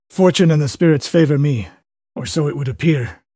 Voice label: happy; kage; fertig classic games